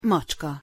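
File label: "macska", Hungarian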